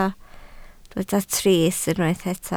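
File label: "trist" Welsh, spoken word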